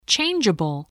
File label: "changeable", English, voice